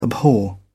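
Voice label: "abhor", British English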